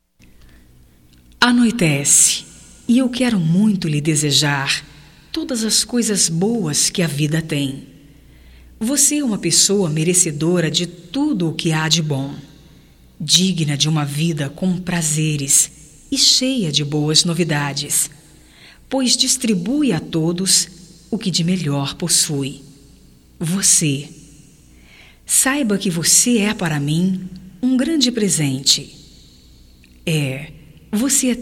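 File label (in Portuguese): BOA NOITE GERAL feminino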